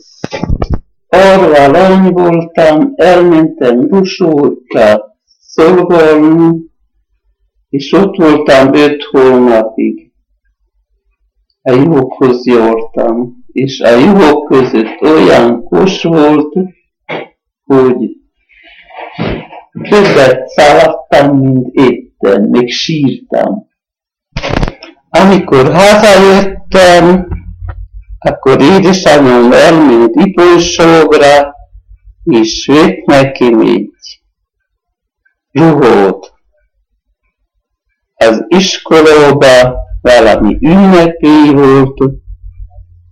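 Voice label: dialect collection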